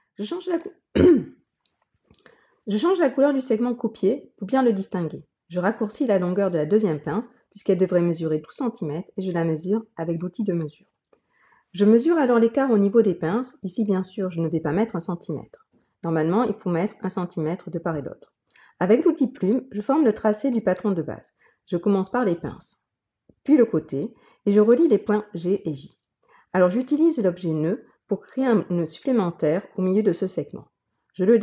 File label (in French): Voix off